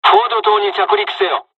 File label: dialogs japanese; land; War Thunder